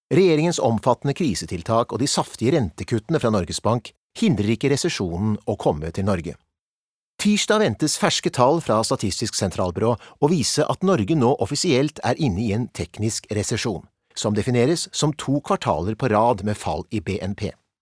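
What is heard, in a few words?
Norwegian informative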